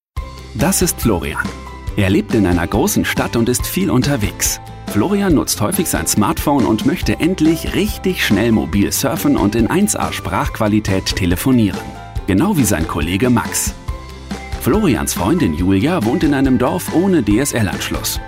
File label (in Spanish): Locutor aleman; alemania